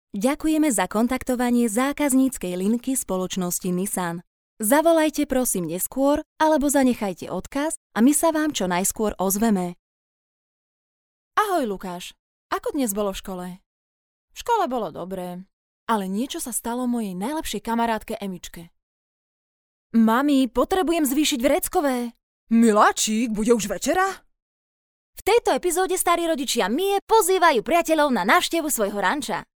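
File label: Slovak, female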